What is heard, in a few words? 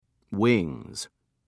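"wings", British English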